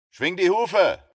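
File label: dialogue German; A Western Drama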